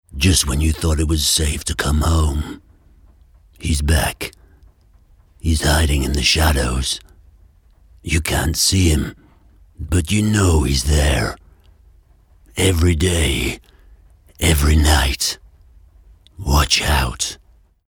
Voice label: Cinema trailer voice

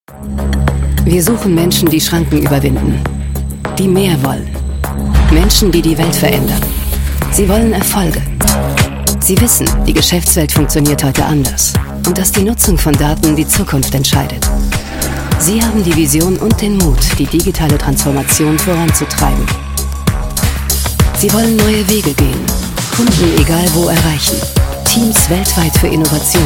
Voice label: Imagefilm; Netapp

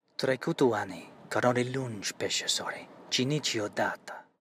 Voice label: Rumanian; language

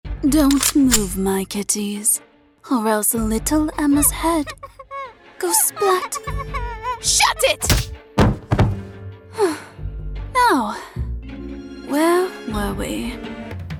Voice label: British

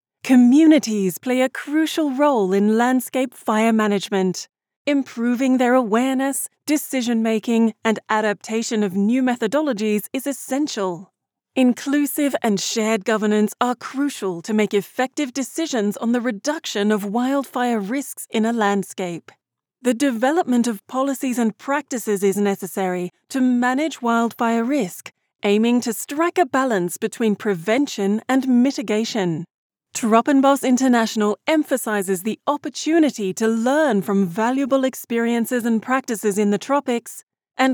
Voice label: Neutral English

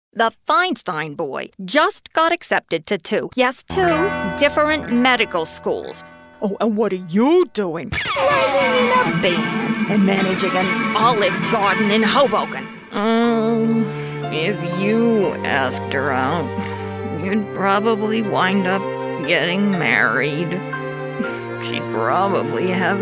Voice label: Animation

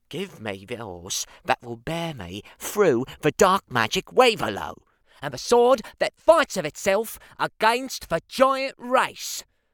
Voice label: Cockney

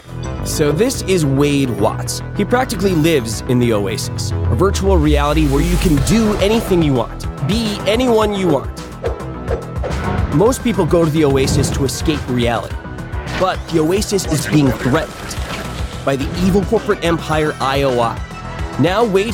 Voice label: narration sample; syfy geeksplain

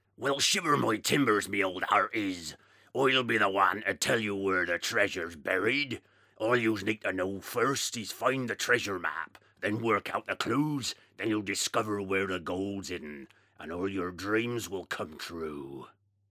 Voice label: Pirate

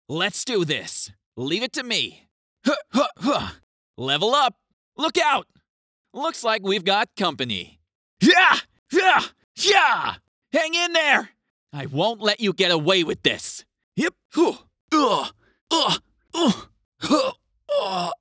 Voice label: Young Protagonist Voice Pack Trailer; en-GB

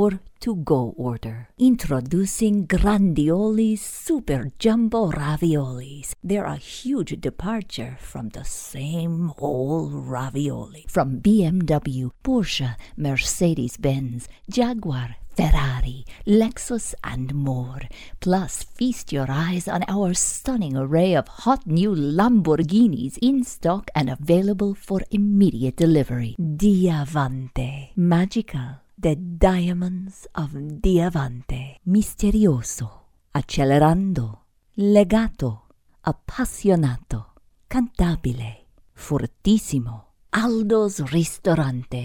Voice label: italian

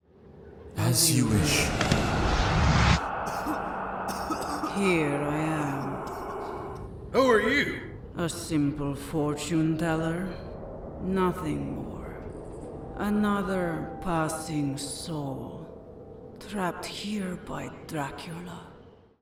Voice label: russian character, fortune teller

